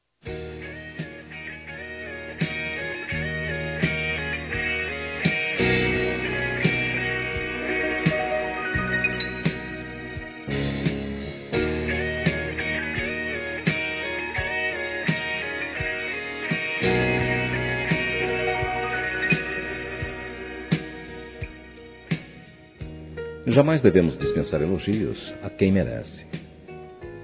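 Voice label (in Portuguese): DEIXE DE SER CONVENCIDA, masculino; Telemensagens; Telemensagens 2017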